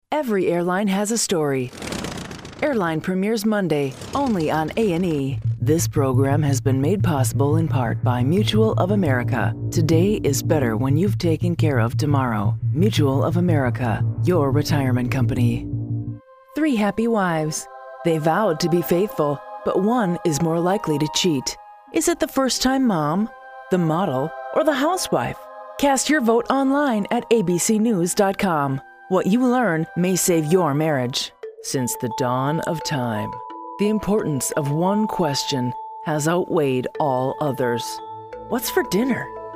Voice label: Promo

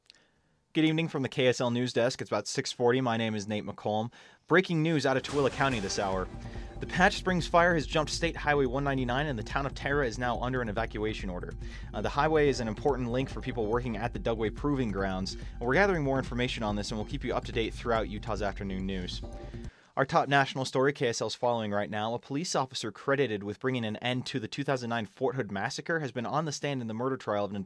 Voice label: NEWSCAST

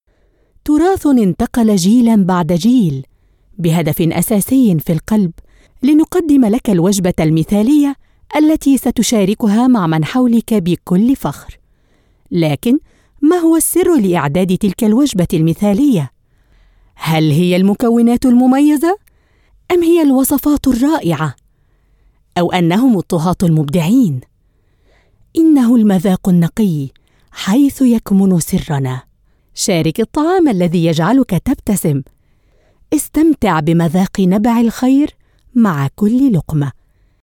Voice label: Standard Arabic

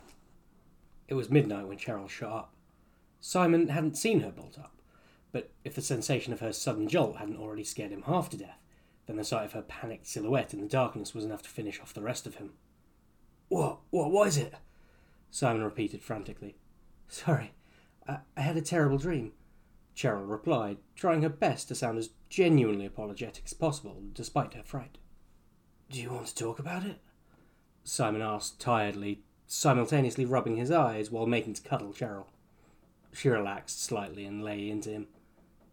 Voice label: Audiobook Character

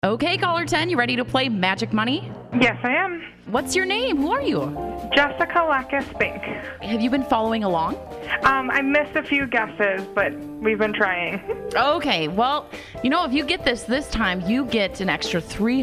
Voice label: Radio Aircheck

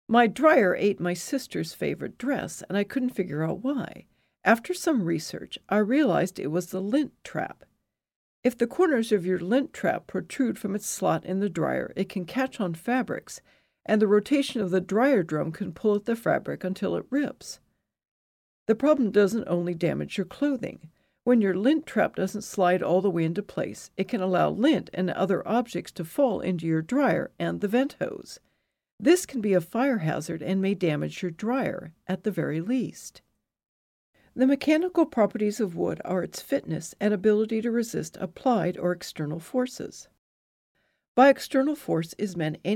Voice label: e-Learning

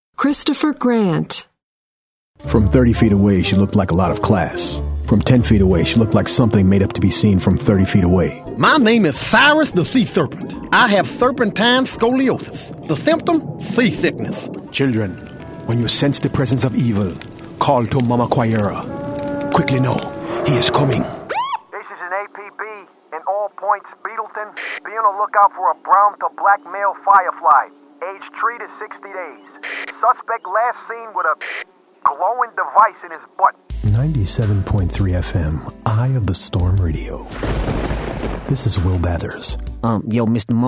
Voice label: animation

